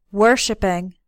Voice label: "worshiping", English